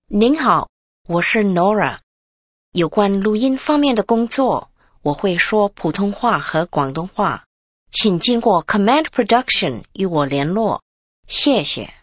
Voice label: Mandarin